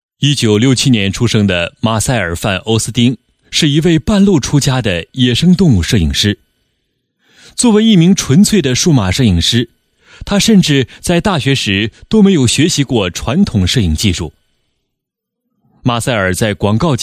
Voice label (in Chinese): 摄影故事叙述性专题